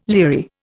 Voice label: "leery", Indian

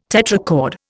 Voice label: "tetrachord", Indian

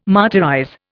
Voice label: "martyrize", Indian

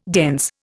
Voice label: "dense", Indian

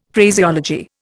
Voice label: "Phraseology", Indian; Dictionary

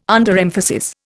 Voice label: "Underemphasis", Indian

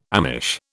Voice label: "Amish", British

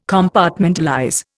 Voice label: "Compartmentalize", Indian

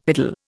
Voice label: "piddle", Indian; Dictionary

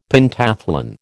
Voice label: "Pentathlon", American

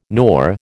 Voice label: "nor", American

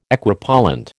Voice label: "Equipollent", American

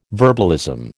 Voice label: "verbalism", American

